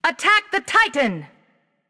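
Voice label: Attack male Buffed; smite; nike; voicepack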